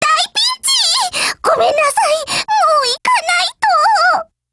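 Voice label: retreat, Japanese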